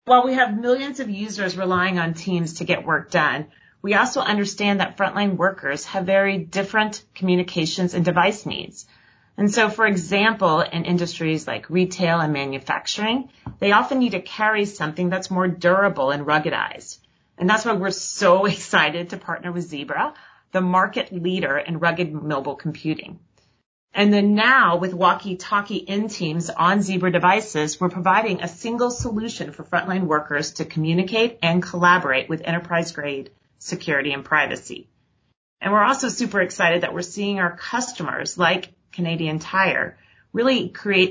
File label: corporate podcast en us; microsoft nicole